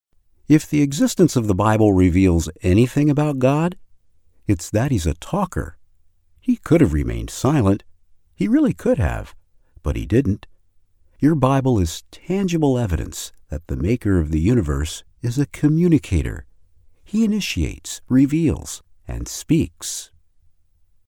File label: locutor estados unidos american english voiceover; English North American